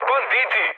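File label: Serbian enemy detected mood med; speech; War Thunder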